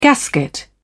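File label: "gasket", British English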